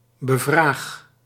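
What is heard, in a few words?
"bevraag", Dutch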